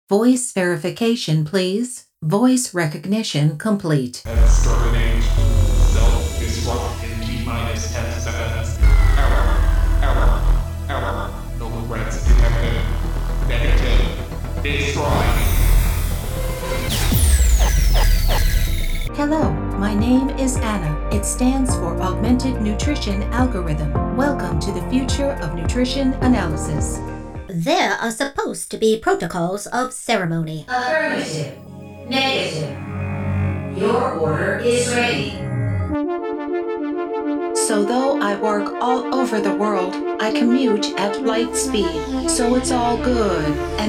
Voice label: Sci Fi Robotic Character